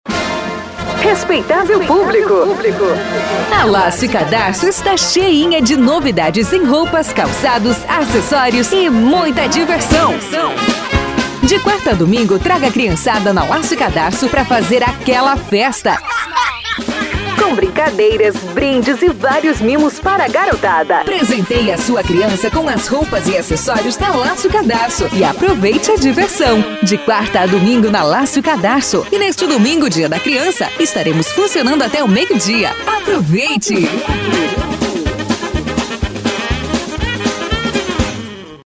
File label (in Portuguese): spot